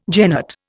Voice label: "genet", Indian

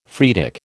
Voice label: "phreatic", American

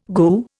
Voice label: "goo", Indian

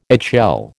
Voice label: "eggshell", American

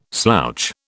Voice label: "slouch", British

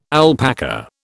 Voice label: "alpaca", British

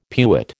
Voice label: "pewit", American

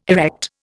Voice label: "erect", Indian

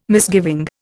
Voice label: "misgiving", Indian

